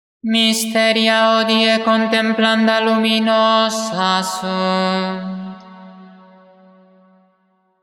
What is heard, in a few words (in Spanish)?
Anuncio de los misterios luminosos; santo rosario